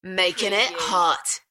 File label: UK female; Makin it hot; beanstalk audio